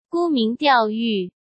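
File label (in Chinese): "沽名钓誉", Mandarin Chinese; hsk; chinese-flashcards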